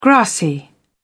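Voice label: "grassy", British English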